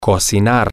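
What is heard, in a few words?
to Cook, spanish, male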